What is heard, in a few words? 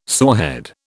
"sorehead", British